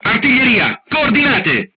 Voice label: voice message art command, crew tanks italian

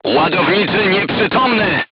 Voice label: voice message charger contused, crew tanks polish

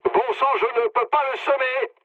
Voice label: aircraft damaged mood low, speech fr; War Thunder

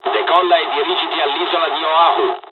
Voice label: takeoff, dialogs italian; War Thunder

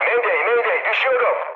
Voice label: aircraft destroyed, mood med, speech tur; War Thunder

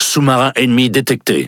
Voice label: Speech Detection Enemy Sub, FR; World Of Warships

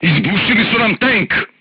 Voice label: voice message player tank hit, crew tanks serbian

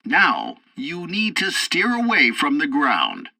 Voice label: tutorial assault bombing, english; War Thunder

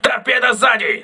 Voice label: torpedo rear, crew ships russian

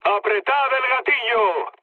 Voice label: attack position, mood med, speech; War Thunder

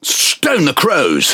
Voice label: Speech Quick Commands Caramba Beep, EN GB; World Of Warships

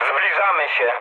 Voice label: near waypoint mood med, speech pol; War Thunder

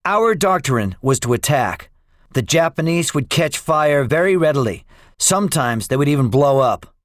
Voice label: debriefing, english; guadalcanal; War Thunder

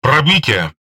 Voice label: RU Speech Hit Confirmation; World Of Warships; USSR Ovechkin

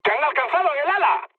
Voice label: right wing, mood med, speech; War Thunder

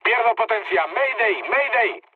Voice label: damaged engine, mood low, speech; War Thunder

